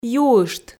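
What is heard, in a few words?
"юышт", Komi, female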